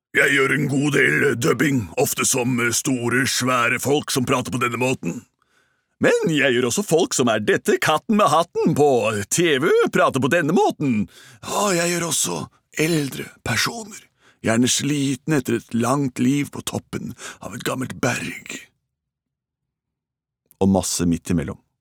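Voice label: Norwegian characters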